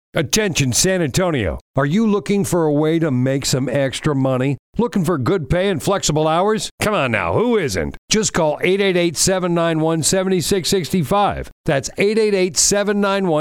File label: Eng; San Antonio